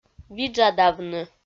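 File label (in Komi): "виджадавны", Komi, female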